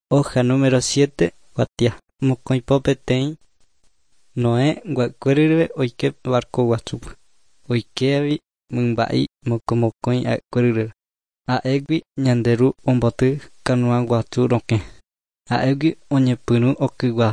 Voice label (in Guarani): guarani mbya; good news and words of life; bible